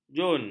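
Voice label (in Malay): "jun", Malay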